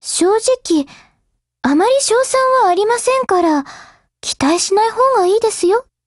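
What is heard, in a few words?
black action, Japanese; GF Alarm; Carcano1938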